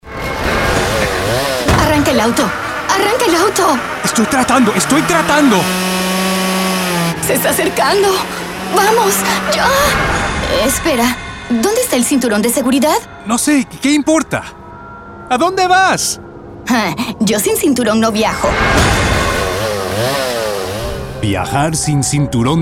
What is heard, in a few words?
Spanish radio